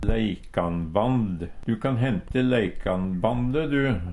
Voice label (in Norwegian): leikanband, Numedalsmål; Du kan hente leikanbande du.; Substantiv inkjekjønn; Jordbruk og seterbruk